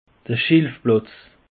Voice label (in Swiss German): "schilfplatz", alsace; peche